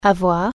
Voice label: have, french